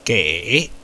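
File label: "gei3", Mandarin Chinese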